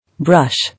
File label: "Brush", English